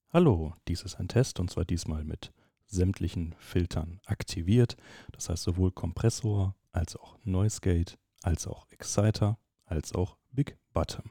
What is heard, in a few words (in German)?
Rode Procaster Kompressor; Rodecaster